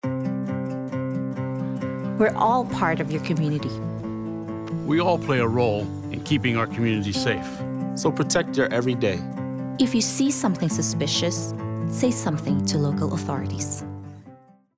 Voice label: English